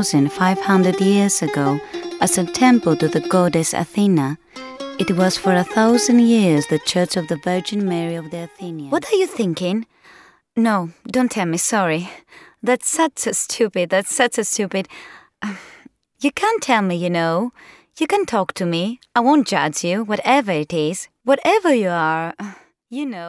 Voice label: female greek english with greek accent compilation